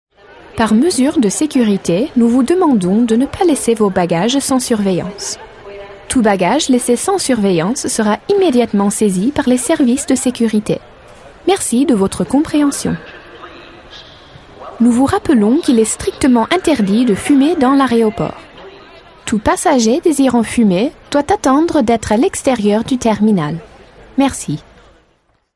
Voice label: French IVR